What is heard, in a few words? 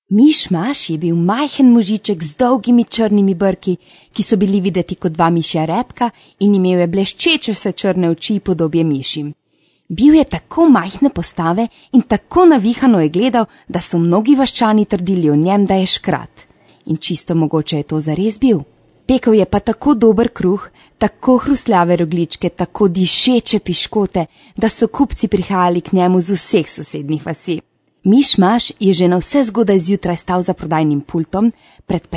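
Slovenian, female, narration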